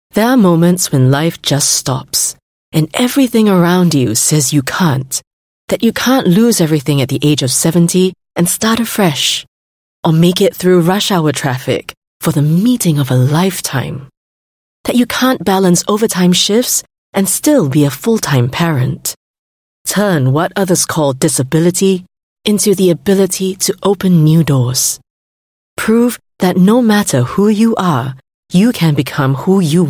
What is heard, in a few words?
Asian English; Grab Brand Film 2019